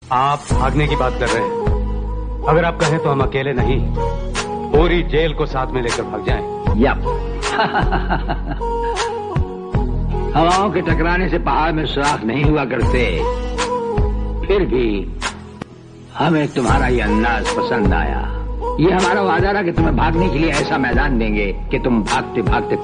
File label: Dialogue Ringtone; Jail; Raj Kumar